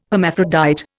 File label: "hermaphrodite", Indian